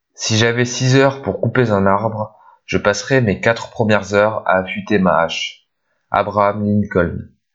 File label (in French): Enregistrement avec micro cravatte